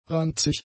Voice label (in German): "ranzig", German; adjectives